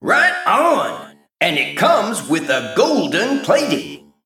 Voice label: Male Mech After Triple

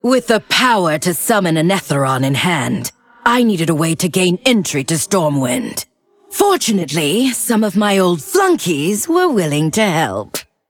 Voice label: Female Undead

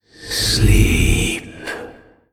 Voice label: Male Old God Attack

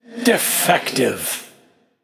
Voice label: Male Ethereal Attack

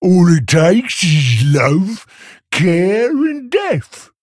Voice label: Male Play; Biggun

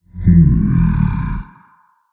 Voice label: Male Old God Thinking

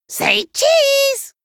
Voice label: Male Gnome Attack

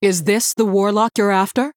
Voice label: Female Human

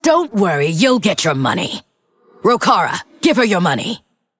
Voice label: Female Undead Victory

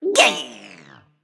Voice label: Female Mech Death

